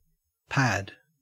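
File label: "pad", Australian English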